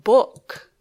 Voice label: "book", English